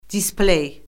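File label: "displey", Azerbaijani; Orfoqrafiya Lüğəti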